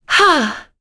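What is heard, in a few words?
Vox Attack, voices heroes English; Lorraine; kingsraid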